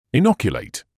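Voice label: "inoculate", British English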